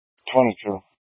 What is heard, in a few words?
Mesa County Sheriff Dispatch